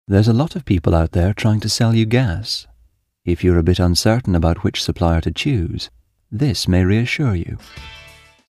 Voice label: soft spoken